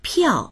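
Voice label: "piao4", Chinese; 票; 切符、チケット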